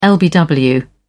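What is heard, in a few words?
"lbw", British English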